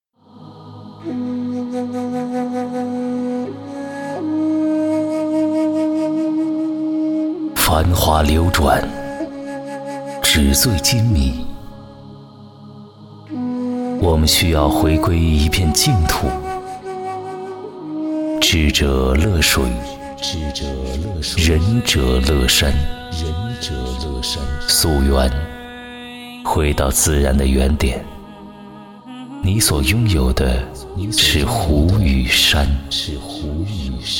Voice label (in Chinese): 男 房产 神秘空灵; 千里湖山